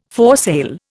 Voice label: "foresail", Indian